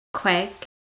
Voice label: "queig", Manx

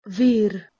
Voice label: "vir" Hindi

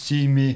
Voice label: "sime", Bavarian

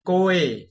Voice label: "koe" Ancient Greek

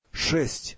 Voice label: "6", Russian

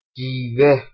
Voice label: "jiive", Persian

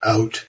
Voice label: "out" Canadian

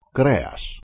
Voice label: "kreas" Ancient Greek

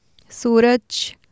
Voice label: "suraj" sun, Urdu

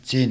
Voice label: "tsin", Bavarian

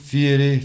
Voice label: "fyre", Bavarian

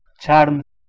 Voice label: "charm", Persian

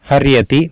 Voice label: "haryati", Sanskrit

